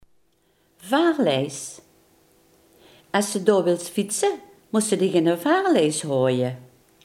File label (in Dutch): "karrespoor", Limburgs